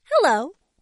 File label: bonjour, voix